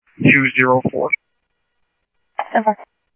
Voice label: Scottsdale Police, scanner